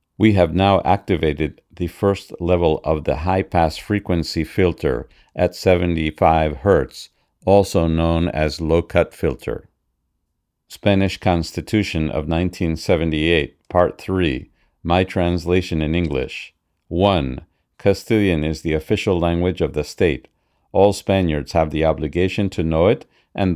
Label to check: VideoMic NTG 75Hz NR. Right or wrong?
Right